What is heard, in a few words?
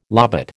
"lobate", American